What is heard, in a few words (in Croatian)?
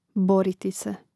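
"boriti se", Hrvatski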